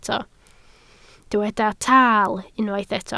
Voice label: "taal", Welsh